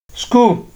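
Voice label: school, Naija, male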